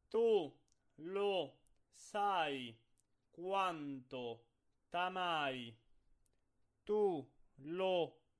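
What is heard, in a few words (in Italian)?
espressivo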